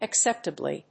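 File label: "acceptably", English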